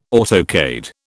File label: "autocade", British